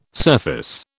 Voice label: "surface", British